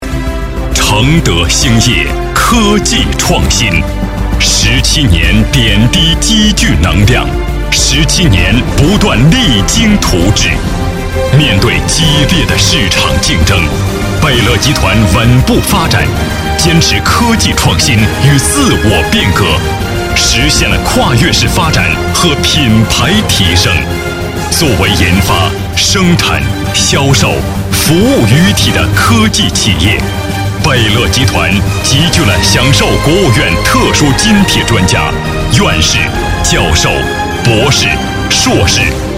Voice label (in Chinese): 男 专题 大气企业宣传